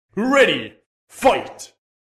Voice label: Male voice ready fight

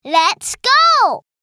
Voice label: "Lets go", girl child kid

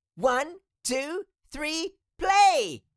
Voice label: Cartoon little child voice one two three plat

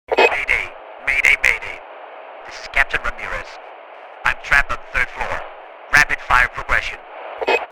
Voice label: Firefighter radio chatter rapid fire progression

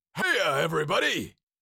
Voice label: Cartoon lion voice heya everybody

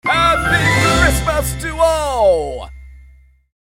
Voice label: Happy christmas to all jingle santa

